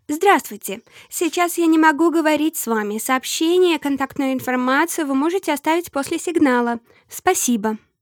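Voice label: Russian, Assertive; GoLocalise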